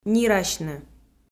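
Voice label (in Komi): "нирасьны" Komi, female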